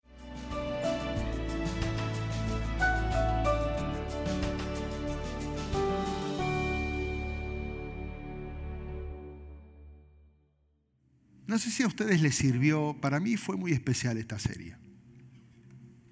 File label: SPAN SERMON